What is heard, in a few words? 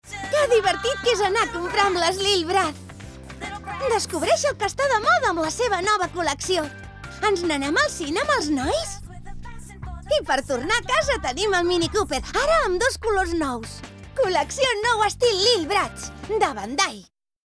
female spanish kid toy comercial; ideasonora